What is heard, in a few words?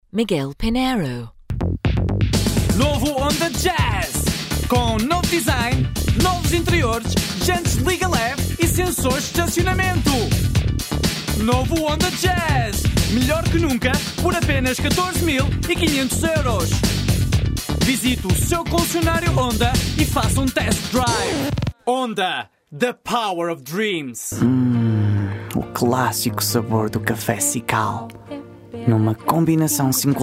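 Portuguese, commercials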